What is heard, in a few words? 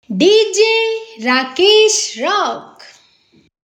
Studio Female Voice Tag; DJ Rakesh Rock